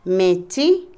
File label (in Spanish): niño, Otomí, mujer; Parentesco; Vocabulary; INALI